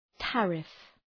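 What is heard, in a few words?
"tariff", English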